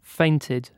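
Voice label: "fainted", British English; pronunciations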